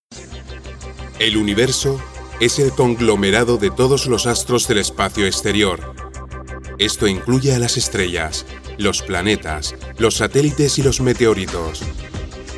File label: spanish documentaries